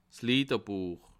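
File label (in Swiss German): "liederbuech", Bas Rhin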